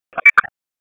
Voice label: Police Northeast District Tac 1, p25; Raleigh; wake